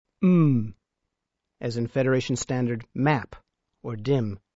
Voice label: Klingon sounds m, Letter m, as in map